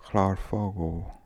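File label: "Khlarfogo", pronunciations; Fourth Way; Beelzebub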